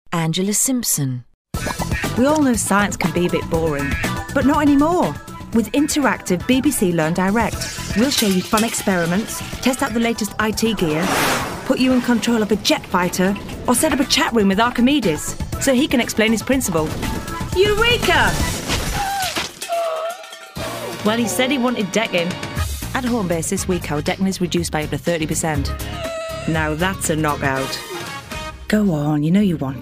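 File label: commercials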